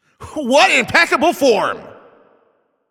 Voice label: Announcer Especially Good Shot